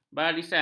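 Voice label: "barisan", Malay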